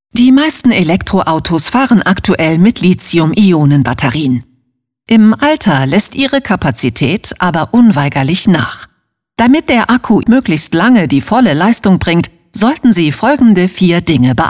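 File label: female, German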